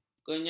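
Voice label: "kenya", Malay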